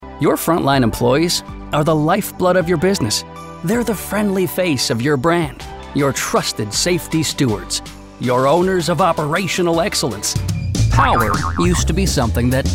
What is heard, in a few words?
E-Learning, en-NZ